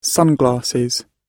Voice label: "sunglasses", gb